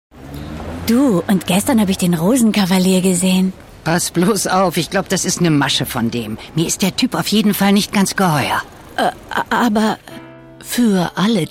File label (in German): Spots, Szene, frauen; Saturn